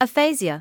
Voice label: "aphasia", English